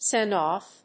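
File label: "send-off", English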